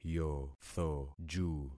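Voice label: "yothoju", concatenated syllables; Affixing experiment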